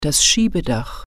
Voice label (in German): "sunroof", German